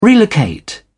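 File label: "relocate", British English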